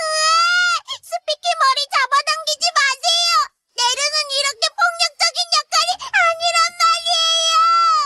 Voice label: yapping; speaki